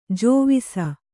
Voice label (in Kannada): "ಜೋವಿಸ", Kannada